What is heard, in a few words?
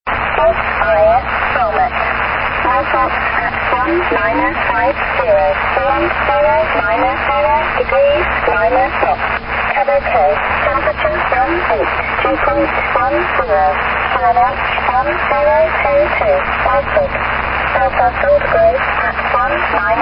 RAF VOLMET 4833.5 KHz